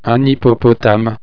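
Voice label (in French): "hippopotame", French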